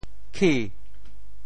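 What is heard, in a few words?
"khi2", Teochew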